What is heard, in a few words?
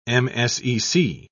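"msec", British English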